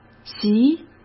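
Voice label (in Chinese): "席", Mandarin Chinese; hsk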